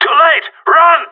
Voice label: bomb fallback, radio; GSG9